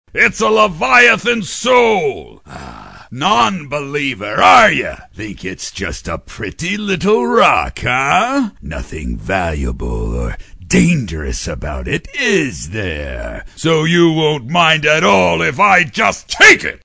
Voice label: BAD GUY - Big, Gruff, & Tuff; animation

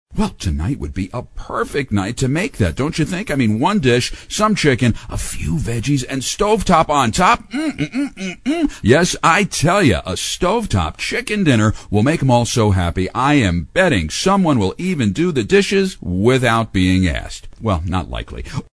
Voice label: Food Announce Wry & Upbeat; commercials

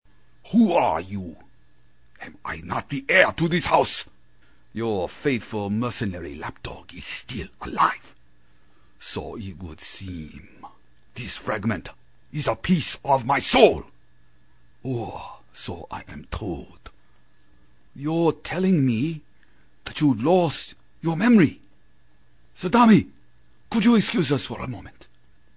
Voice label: WARLORD Asian; animation

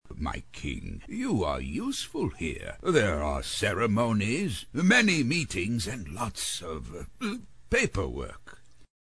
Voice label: Counsel, Duplictous & Dottering; animation

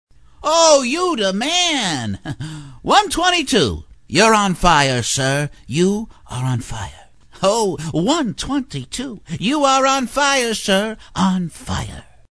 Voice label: ANIMAL Weasel-Like, cartoon; animation

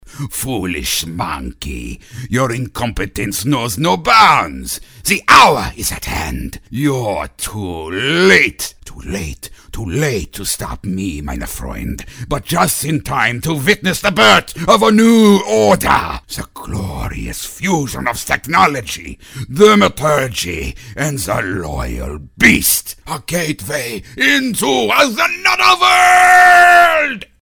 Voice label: Insane Nazi, Intense; animation

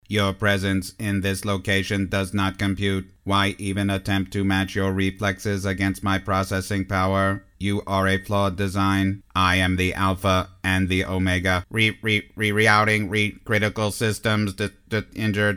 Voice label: Computer, Corrupted & Dangerous; animation

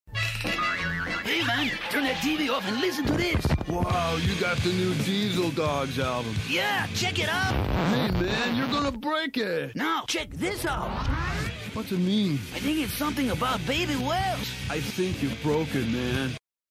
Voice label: MEXICAN SURFER Dialogue; commercials

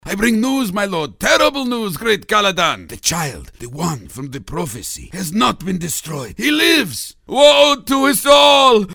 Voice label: Nervous; FOREIGN ADVISOR; animation

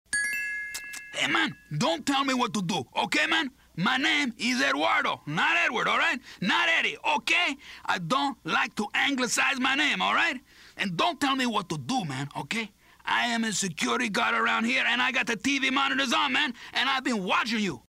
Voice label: Latino Security Guard; animation

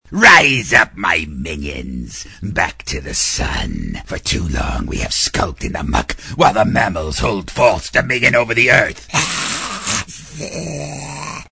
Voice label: Lizzard Monster, Angry & Powerful; animation

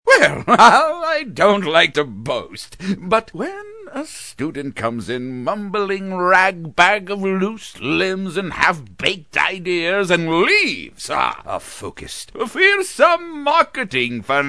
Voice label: Head Master, Blustery & Flusterdly, cartoon; animation

